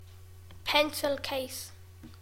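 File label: "pencil case", English; classroom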